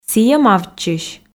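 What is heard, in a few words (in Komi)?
сійӧ мавтчысь, Komi, female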